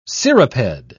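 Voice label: "cirripede", British English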